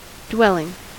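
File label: "dwelling", US English; pronunciation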